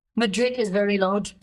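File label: Voice Acting es, female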